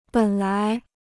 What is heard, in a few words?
"本来", Chinese